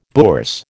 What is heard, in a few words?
"bourse", American